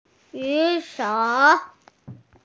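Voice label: "ju3shou3", Mandarin Chinese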